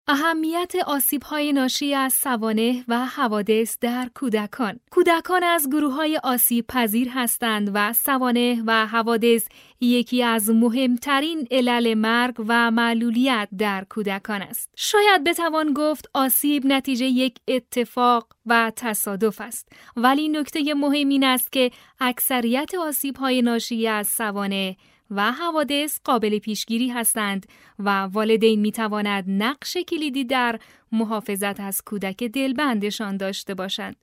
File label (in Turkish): Farsca Kadin Iran; seslendirme